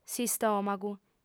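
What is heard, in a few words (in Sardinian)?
"s'istòmagu", female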